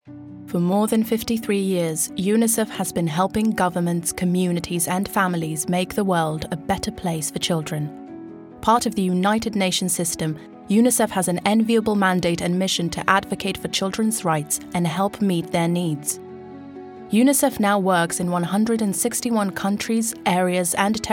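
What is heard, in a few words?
English UK, informative explainer; GoLocalise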